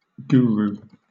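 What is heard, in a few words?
"guru" British English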